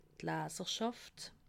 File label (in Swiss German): "laaserschaft", alsace